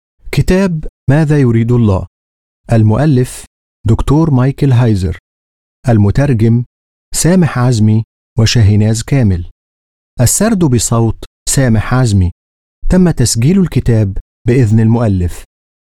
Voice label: arabic